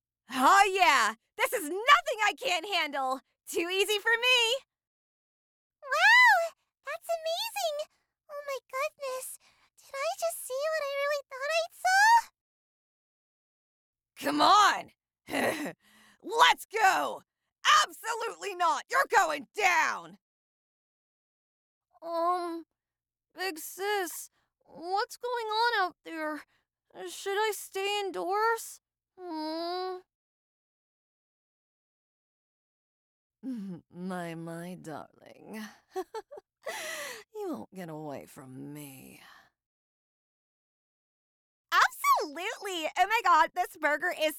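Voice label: American English, female, voice over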